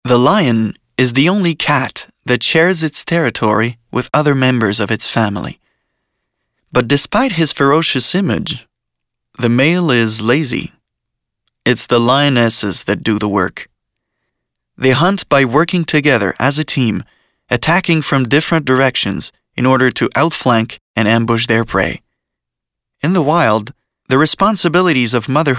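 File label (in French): voix english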